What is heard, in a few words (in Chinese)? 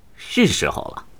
回合开始, 年轻的修士, BattleCry; ycrpg